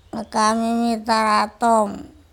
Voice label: "akamimitaratom", andamanese; old woman